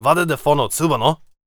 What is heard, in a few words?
warrior conversation emotion question